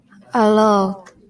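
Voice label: "aloe", Japanese, female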